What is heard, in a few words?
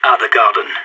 garden, radio; SAS